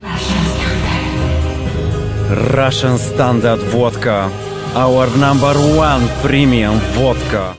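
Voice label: Accented English, Bulgarian; GoLocalise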